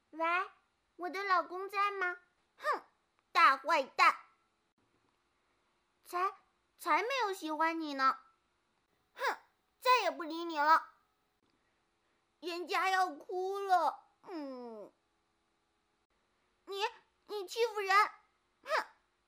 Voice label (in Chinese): 女 萝莉